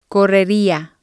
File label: "correria", Spanish